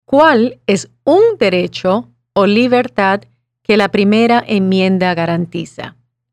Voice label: Spanish